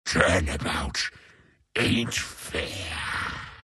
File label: troll death; troll warlord